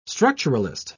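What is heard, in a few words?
"structuralist", British English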